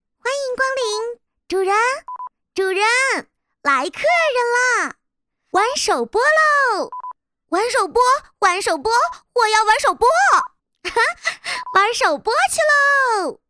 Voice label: 女 游戏动漫 少女音欢迎词

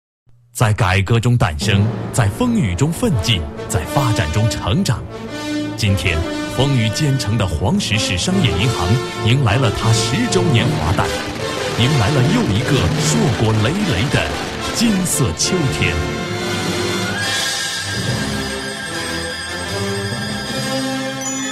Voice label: 男40 大气专题, 国语男声配音

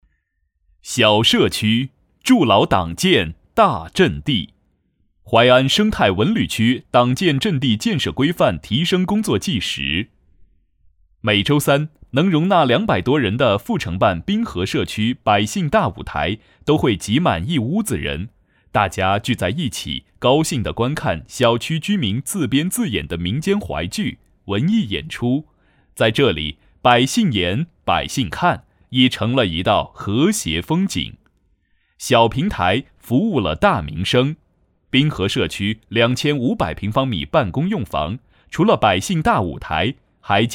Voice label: 男-党建-工作汇报

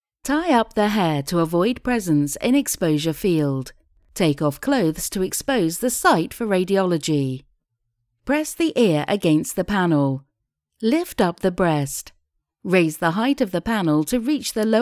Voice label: 外籍女 圣诺乳腺机 产品介绍配音

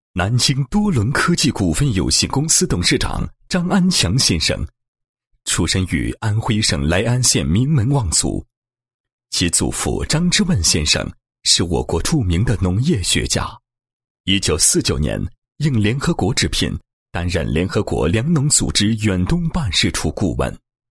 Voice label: 男 祝贺词; 某公司创始人章某生日快乐

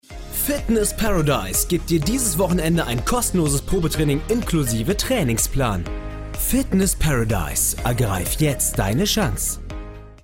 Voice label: 德语男 品牌宣传

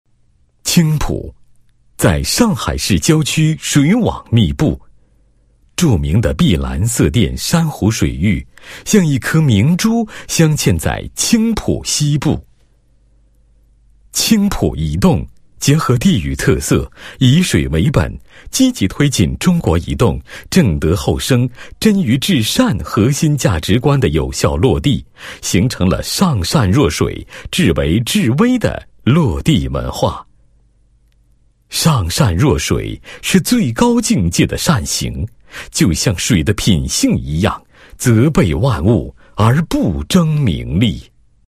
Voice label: 男 文化 青浦移动水文化践行记, 配音案例

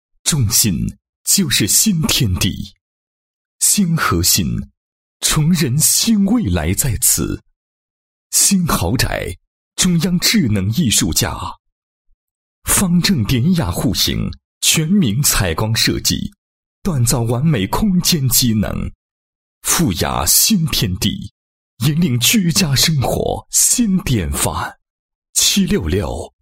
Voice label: 男, 宣传广告; 富雅·新天地

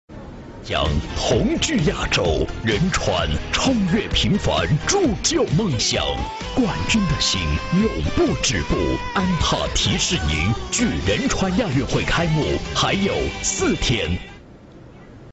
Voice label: 男 节目包装, 国语男声配音

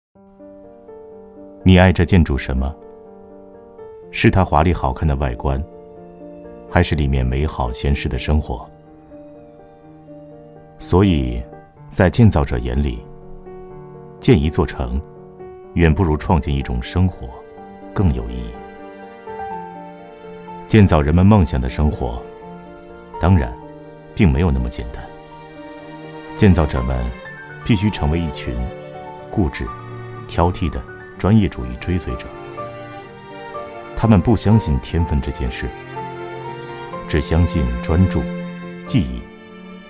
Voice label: 男 广告 内心独白式, 国语男声配音 温情人物专题

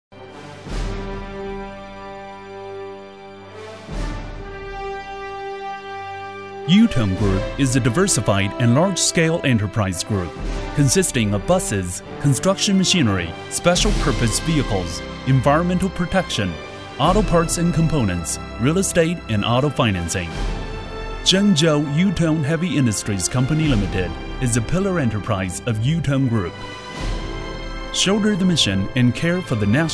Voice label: 英男, 英文, 宣传片

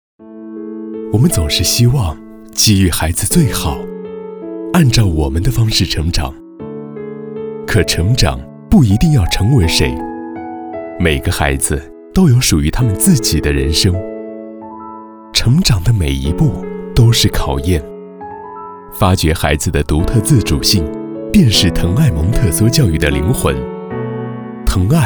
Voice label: 宣传片, 温馨温暖; 藤爱教育

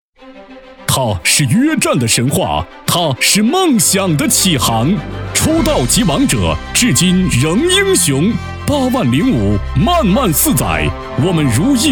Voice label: 男 广告 激情有力; 梦想

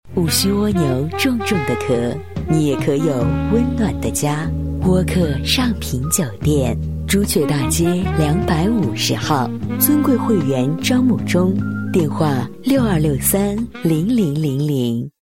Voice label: 女 广告 磁性, 国语女声配音; 酒店广告

